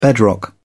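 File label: "bedrock", English, British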